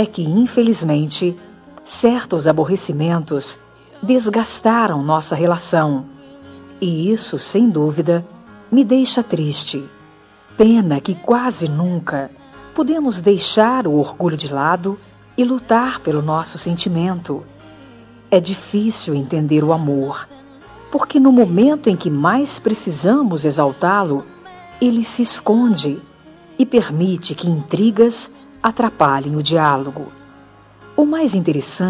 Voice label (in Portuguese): Apesar de tudo ainda te amo, Telemensagem Romântica para Ex. Voz Feminina